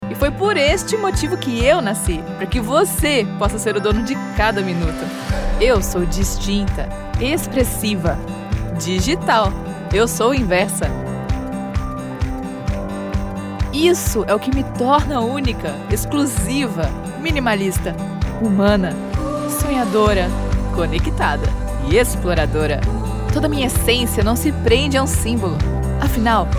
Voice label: corporate presentation young happy optimistic female brazilian; portuguese; inversa